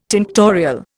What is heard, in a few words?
"tinctorial", Indian